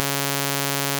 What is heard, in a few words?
voicing filtered